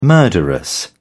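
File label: "murderous", British English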